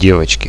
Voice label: oh yes, radio bot; Reallite